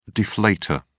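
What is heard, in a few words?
"deflator", British English, dictionary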